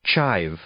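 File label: "chive", English; noun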